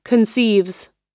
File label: conceives, us